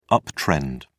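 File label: "uptrend", British English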